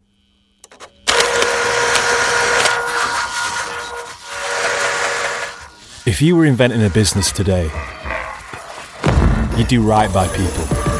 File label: commercial; co-op